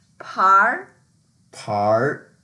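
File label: "par2", Mandarin Chinese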